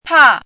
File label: "pà", hanzi